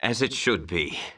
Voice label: Male; baldurs1